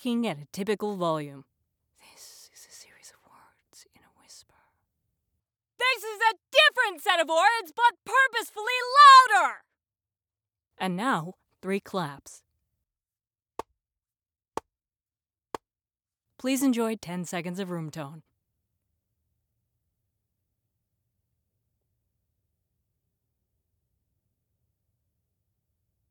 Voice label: Raw Home Studio Sample MKH416; Korean American voice actress based in Minneapolis